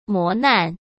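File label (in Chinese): "磨难", Mandarin; chinese-flashcards; hsk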